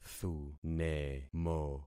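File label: "thunemo", concatenated syllables; Affixing experiment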